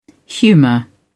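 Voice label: "humor", English, British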